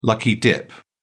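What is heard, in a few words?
"lucky dip", British English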